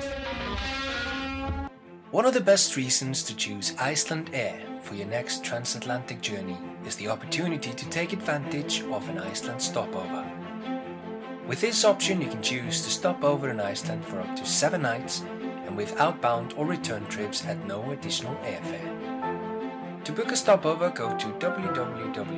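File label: Icelandic Accented English; GoLocalise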